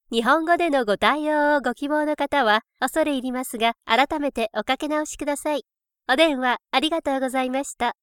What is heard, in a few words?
IVR